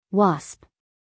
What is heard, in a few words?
"wasp", us female